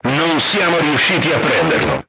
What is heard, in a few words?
armor not pierce, crew tanks italian; War Thunder